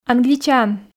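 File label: "англичан", Komi, female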